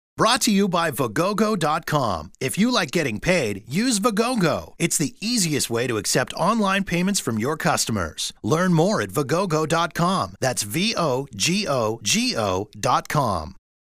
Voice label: 10 sec spot